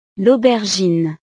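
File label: "l aubergine", French; verduras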